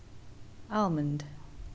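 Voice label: "almond", British English, female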